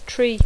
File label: "tree", English; Dacco